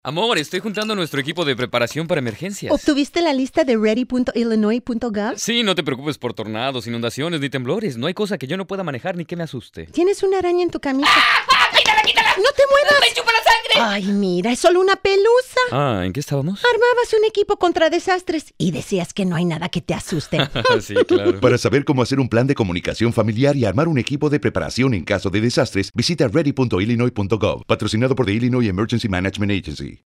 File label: radio campaign spanish; fearnot